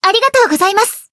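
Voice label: healed, Japanese; violet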